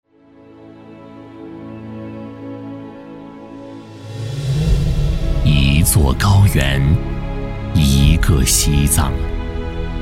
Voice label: 男 记录片 大美西藏

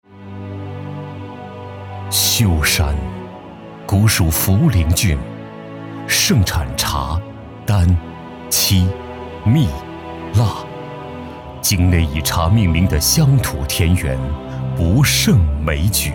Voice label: 男 历史厚重; 茶叶文化

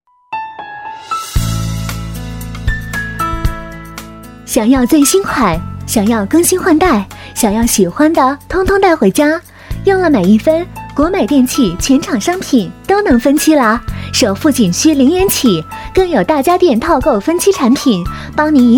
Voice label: 女 广告; 国美-美易分

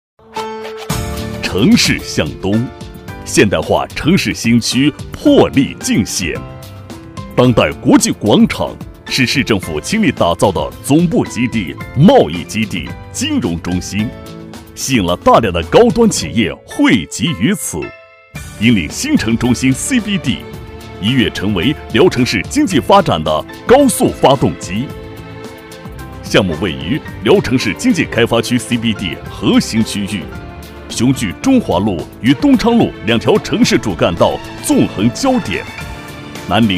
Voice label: 男 企业专题 浑厚有力; 当代国际

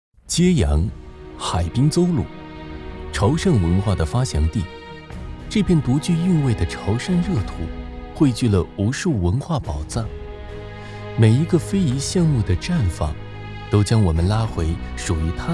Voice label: 男 纪录片 非遗纪录片; 揭阳豆酱

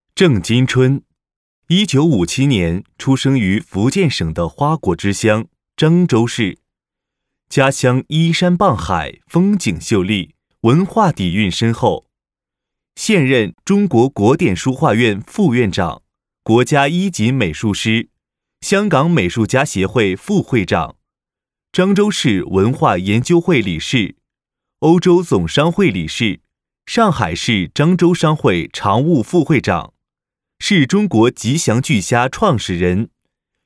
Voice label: 男 专题 人物简介

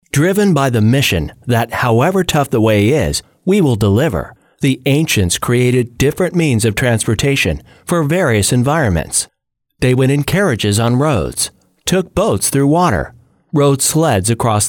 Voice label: 全球智能物流技术配音; 顺丰科技

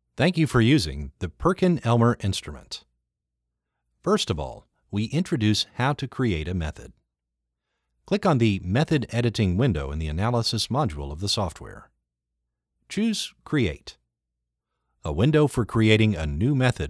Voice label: 外籍 干音 产品使用说明

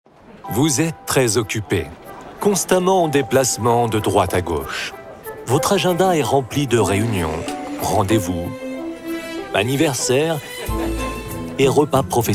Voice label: 轻快活泼, 宣传片, 金融; Alektum金融

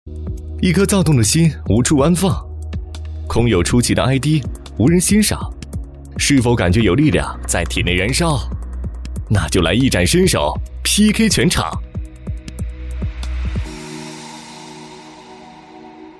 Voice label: 男 银行广告 个性潮流

